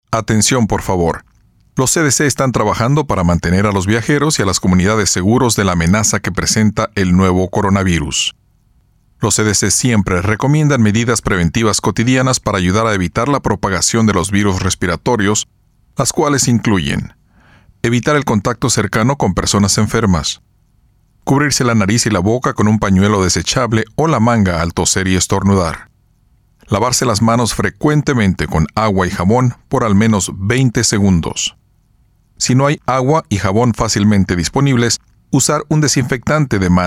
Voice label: Public Service Announcement USA SPANISH; coronavirus; 2019-ncov